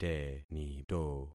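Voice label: "tenito", concatenated syllables; Affixing experiment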